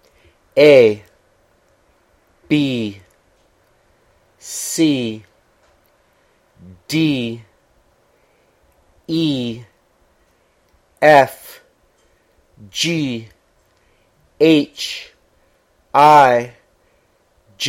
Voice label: english alphabet